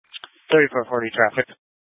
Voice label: Police Department Dispatch, scanner